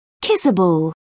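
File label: "kissable", British English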